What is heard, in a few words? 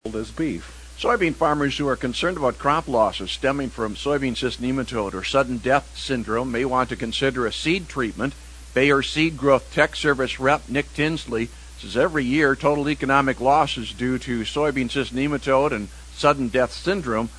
air check